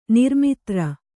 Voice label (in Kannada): "ನಿರ್ಮಿತ್ರ", Kannada